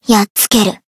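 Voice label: Voice finish, Japanese; nagi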